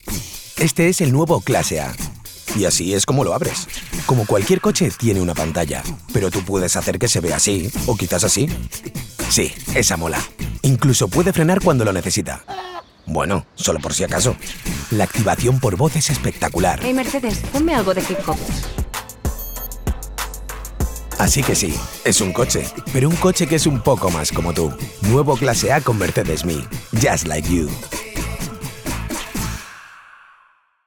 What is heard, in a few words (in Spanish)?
generica casual